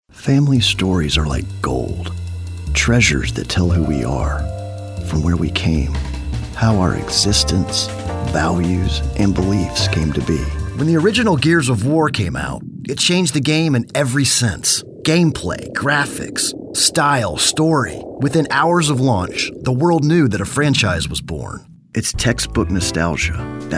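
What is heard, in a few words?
Narration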